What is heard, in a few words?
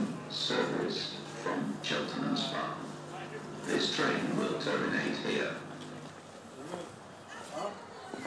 dalek announcement at swindon rail station